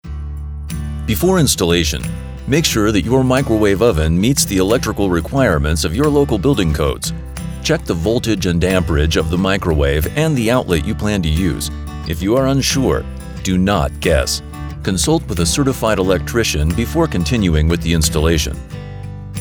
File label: eLearning; Microwave